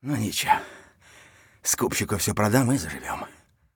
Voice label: mutter; Escape from Tarkov